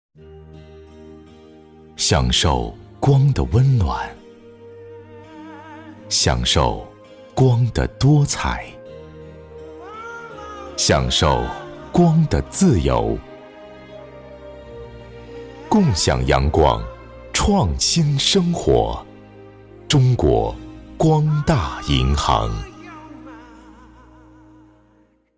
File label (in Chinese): 广告 银行, 国语男声配音, 温情; 光大银行